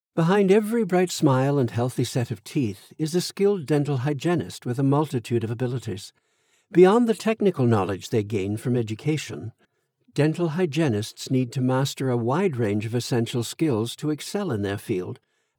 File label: transatlantic; accents languages